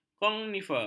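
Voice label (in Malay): "konifer", Malay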